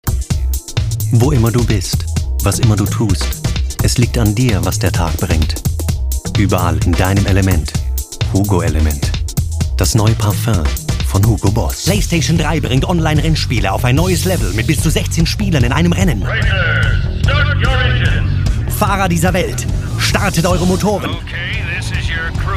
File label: male German German and English with German accent compilation